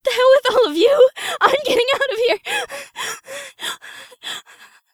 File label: Panic; zps